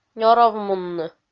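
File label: "нёровмунны", Komi, female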